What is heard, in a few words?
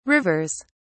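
"rivers", British English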